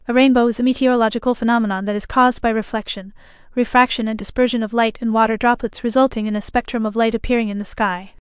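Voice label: speaker, American English; piper-voices; en US; libritts r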